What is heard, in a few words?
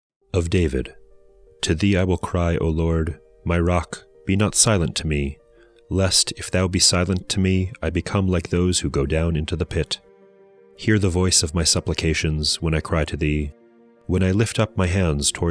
poetry and prayer psalm english